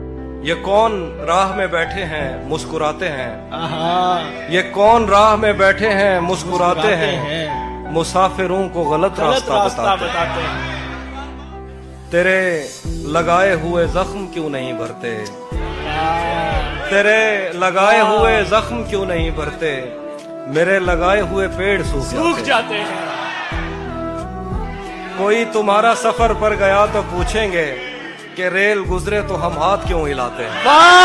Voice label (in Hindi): Shayari Ringtone